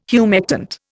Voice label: "humectant", Indian